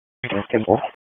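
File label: Police Northeast District Dispatch, Raleigh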